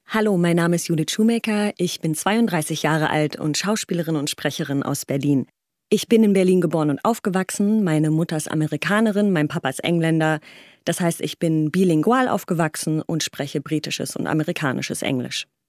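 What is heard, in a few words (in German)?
Vorstellung Deutsch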